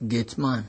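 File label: "gateman", Nigerian Pidgin, male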